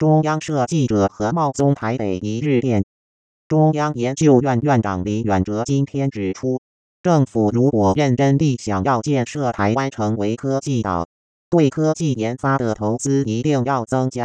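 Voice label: Synthetic Speech, News reporting, Mandarin, Timbre transformation: female => male, Pitch: 120Hz; VTL:100/85%; Using spectrum-progression model and HNM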